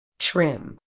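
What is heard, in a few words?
"trim", British English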